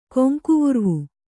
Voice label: "ಕೊಂಕುವುರ್ವು", kannada

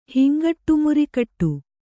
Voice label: "ಹಿಂಗಟ್ಟುಮುರಿಕಟ್ಟು", kannada

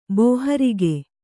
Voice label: "ಬಾಹುರಕ್ಕೆ", Kannada

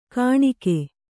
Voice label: "ಕಾಣಿಕೆ", Kannada

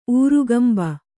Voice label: "ಊರುಗಂಬ", Kannada

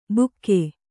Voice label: "ಬುಕ್ಕೆ", Kannada

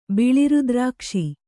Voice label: "ಬಿಳಿರುದ್ರಾಕ್ಷಿ", Kannada

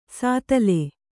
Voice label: "ಸ್ಥಾಲಿ", Kannada

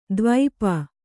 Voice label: "ದ್ವೀಪಿ", kannada

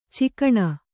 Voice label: "ಚುಕ್ಕಣ", Kannada

